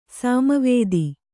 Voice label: "ಸಾಮವೇದ", kannada

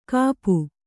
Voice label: "ಕಾಪು", kannada

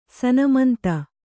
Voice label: "ಸನ್ಮಂತ", Kannada

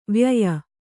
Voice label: "ವ್ಯಯ", Kannada

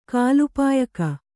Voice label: "ಕಾಲಪಾಯಕ", kannada

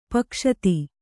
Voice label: "ಪೈಗಸ್ತಿ", Kannada

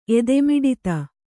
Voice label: "ಎದೆಮಿಡಿತ", Kannada; edemiḍita; noun; = ಎದೆಬಡಿತ.; emotion; feelings

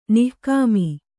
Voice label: "ನಿಕಾಮ", Kannada

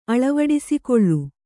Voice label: "ಅಳವಡಿಸಿಕೊಳ್ಳು", Kannada

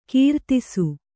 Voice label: "ಕೀರ್ತಿಸು", kannada

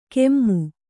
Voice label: "ಕೆಮ್ಮು" Kannada; kemmu

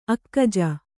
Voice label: "ಅಕ್ಕಜ", Kannada

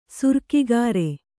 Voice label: "ಸುರಿಗೆಕಾರ", kannada

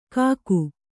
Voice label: "ಕಾಕು", Kannada

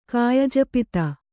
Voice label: "ಕಾಯಜಪಿತ", Kannada

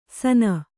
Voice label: "ಸನ", Kannada

